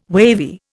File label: "wavy", Indian